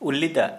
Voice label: Santali Saliva

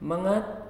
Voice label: "Angry", Nalik

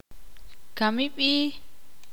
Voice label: ixil

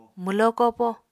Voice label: "mwlo kopo", Apatani

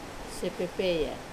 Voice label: "pepeya", quiet, Wamesa

